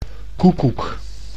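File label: "koekoek", Dutch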